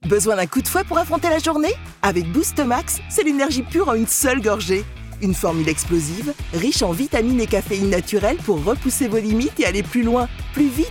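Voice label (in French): pub, voix off féminine; boostmax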